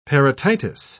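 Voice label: "parotitis", British English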